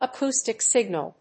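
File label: "acoustic signal", English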